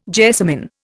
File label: "jessamin", Indian